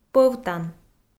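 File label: "пӧвтан", Komi, female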